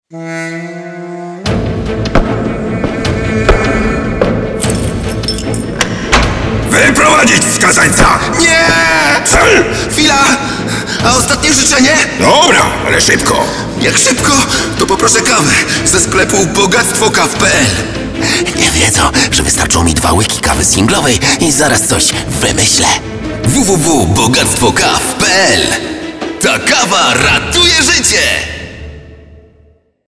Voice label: Radio